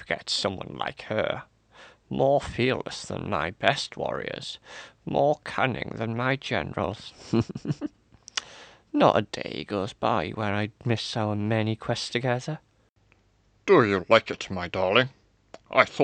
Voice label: Character